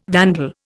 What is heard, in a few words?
"vandal", Indian